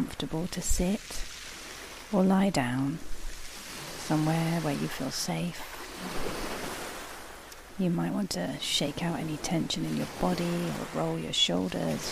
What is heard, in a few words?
sea soul meditation ocean visualisation